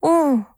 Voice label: AGREE JP

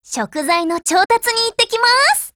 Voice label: OPERATION BEGIN, JP; 88typeMod

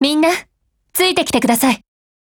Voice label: OPERATION BEGIN JP

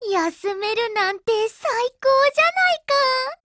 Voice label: LOADING JP; Jatimatic

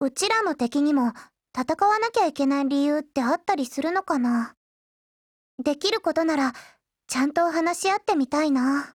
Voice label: DIALOGUE, JP

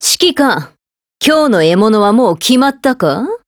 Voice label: HELLO JP; Python

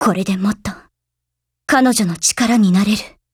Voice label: FEED JP

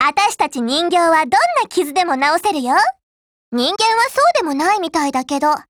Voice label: FIX, JP

